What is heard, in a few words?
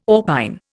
"orpine", Indian